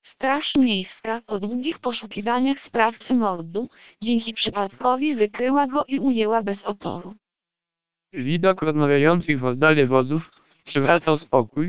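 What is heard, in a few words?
SPR 1200 short, Polish speech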